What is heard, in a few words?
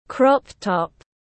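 "crop-top", English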